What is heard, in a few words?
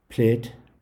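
"plate", English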